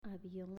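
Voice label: "Avion", Spanish, female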